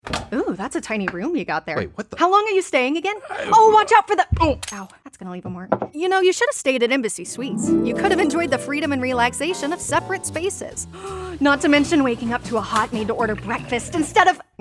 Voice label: vo demo